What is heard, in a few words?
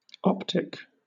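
"optic", British English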